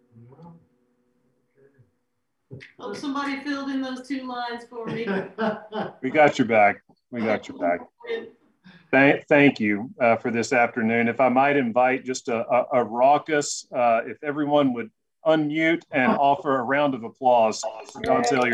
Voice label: conversation

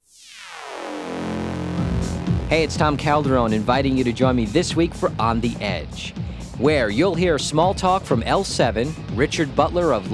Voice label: promo

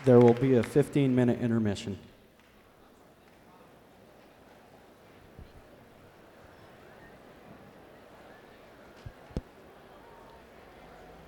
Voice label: announcement

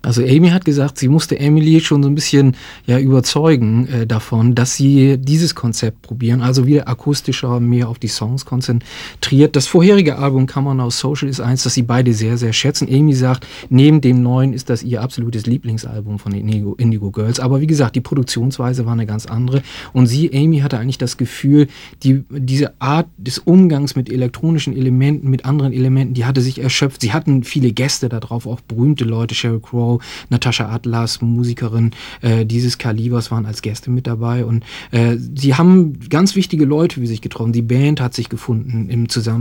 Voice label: german translation

